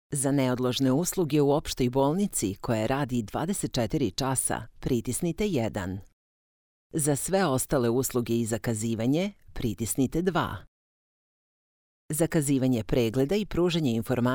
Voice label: IVR, Phone Greetings / On Hold, Serbian, Female Voice; bolnica